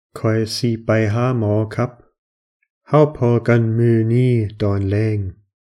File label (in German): Isaan Mann; ich werde zum Arzt gehen wir treffen uns heute Abend